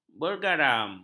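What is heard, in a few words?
"bergaram", Malay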